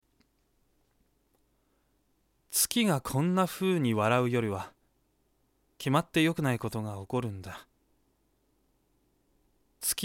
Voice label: poem